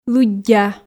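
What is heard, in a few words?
"лыддя", Komi, female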